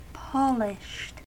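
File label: "polished", US English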